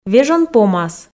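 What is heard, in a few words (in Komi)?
"вежон помас", female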